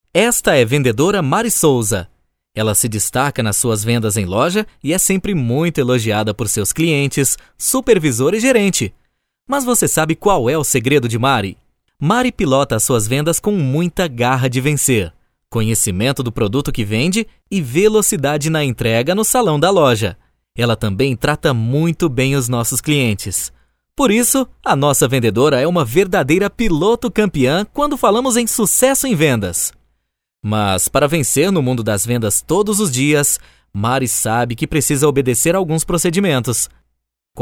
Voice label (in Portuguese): NARRACAO CURSO EAD